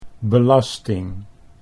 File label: "belasting", Dutch